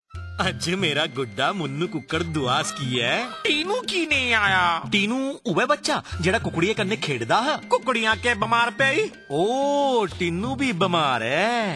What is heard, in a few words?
Radio Seek Treatment Dogri